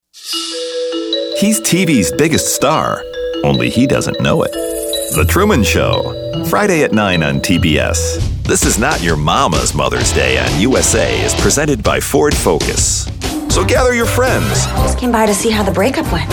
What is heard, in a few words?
Promo